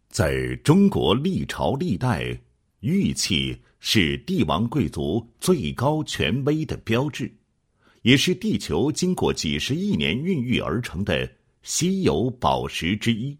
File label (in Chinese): 男-产品简介, 配音案例; 五富玉樽